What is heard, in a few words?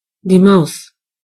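mouse, German voices words; gcompris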